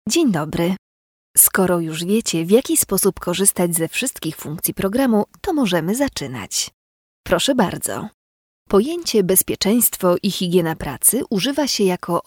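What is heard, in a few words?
Polish, female, narration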